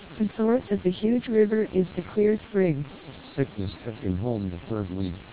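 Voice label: twelp short snr 10 db, Input speech (SNR=10dB) TWELP 1200 bps; NCSE Disabled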